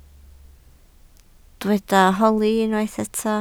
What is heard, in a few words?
"holi", Welsh